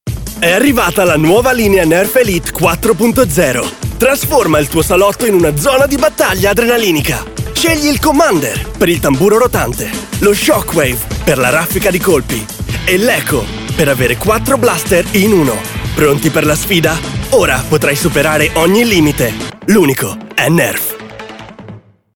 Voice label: Italian; European, Italian, Male, 20s-30s